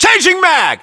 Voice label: inform reloading generic, US